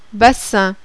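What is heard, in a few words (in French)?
"bassin", French; BIOME; biologie; lieuxgeographique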